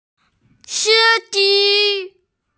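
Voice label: "si1ji1", Chinese, F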